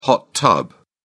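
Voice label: "hot tub", British English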